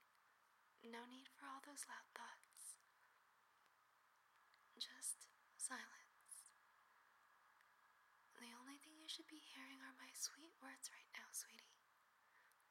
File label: Quiet Down, Shhh, whisper, relax, quiet down